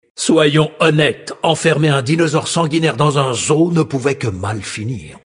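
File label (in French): Male Blague, voix françaises; Sacrenuit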